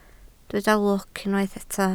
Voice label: "lwc", Welsh

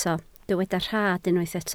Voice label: "rhad", Welsh

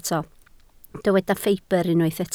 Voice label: "ffibr", Welsh

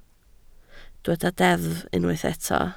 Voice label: "deddf", Welsh

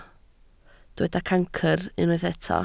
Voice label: "cancr", Welsh

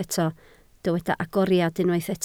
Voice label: "agoriad", Welsh

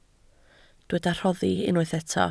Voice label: "rhoddi", Welsh

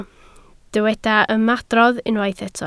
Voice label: "ymadrodd", Welsh

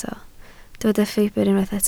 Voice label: "ffibr", Welsh

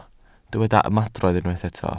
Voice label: "ymadrodd", Welsh

